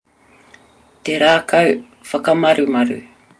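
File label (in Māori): "te rakau whakamarumaru", Māori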